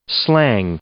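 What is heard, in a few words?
"slang", English, male; noun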